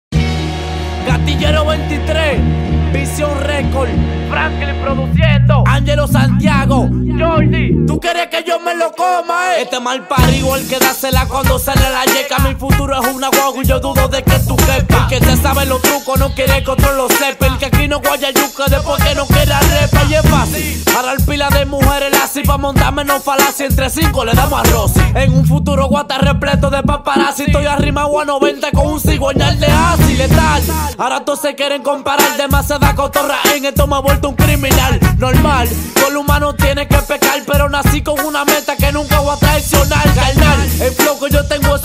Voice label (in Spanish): Rap